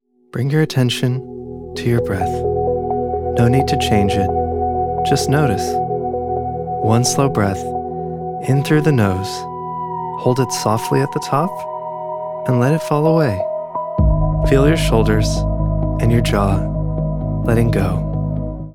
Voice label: wellness breathwork body scan; calm spacious

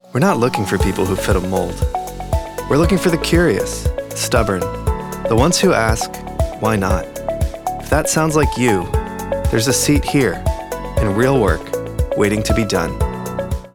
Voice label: corporate recruiting employer brand; warm inviting

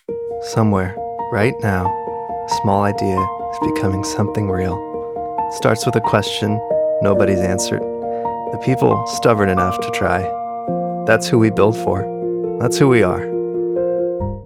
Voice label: brand film cinematic; warm inspiring

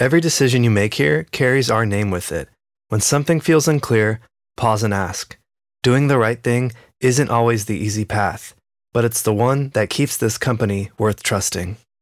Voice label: elearning compliance ethics; calm authority

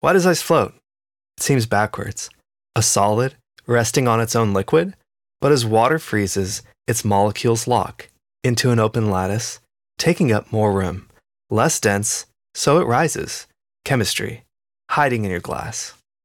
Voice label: elearning academic explainer; concept

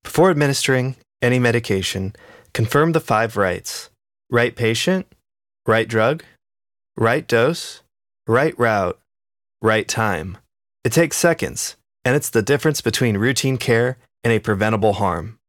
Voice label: elearning clinical healthcare; training male

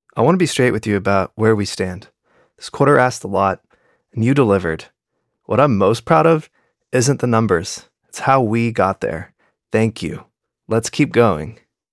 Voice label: corporate ceo internal comms; intimate sincere

